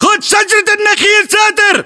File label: order cover palm trees, battle chatter, english